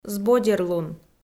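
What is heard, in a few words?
"збодерлун", Komi-Zyrian, female; audio dict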